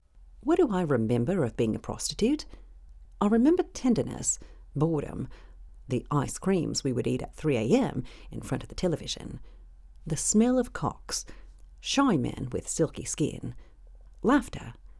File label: narr sample aussie accent